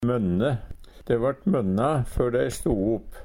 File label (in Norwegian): "mønne", Numedalsmål; Verb